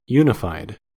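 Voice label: "unified", American English; pronunciations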